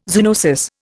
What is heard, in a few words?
"zoonosis", Indian